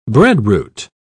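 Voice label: "breadroot", British English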